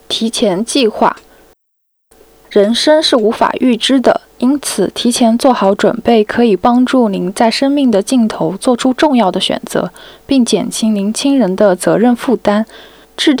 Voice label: Palliative Care Mandarin; Plan early